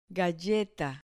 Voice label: "galleta", Spanish